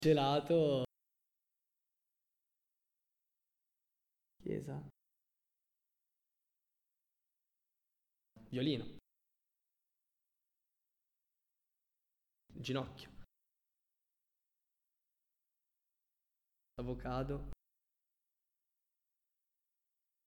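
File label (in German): Zusammengefugte Worter Langsam Italienisch, male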